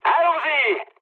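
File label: enemy detected, mood high, French; War Thunder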